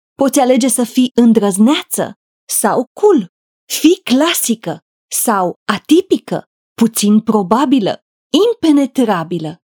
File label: Commercial VO